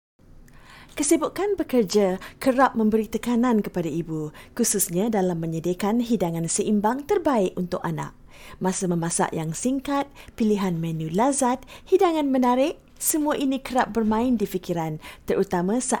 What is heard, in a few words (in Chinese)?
马来西亚语女, 外语, 小语种, 知性